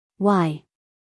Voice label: "why", us female; everyone-can-use-english